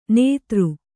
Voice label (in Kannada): "ನೆತ್ತರ", Kannada